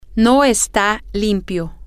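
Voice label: it is not clean, spanish, female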